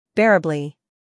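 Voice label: "humor", British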